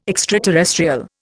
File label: "extraterrestrial", Indian